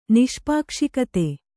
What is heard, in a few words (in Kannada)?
"ನಿಷ್ಪಾಕ್ಷಿಕತೆ", Kannada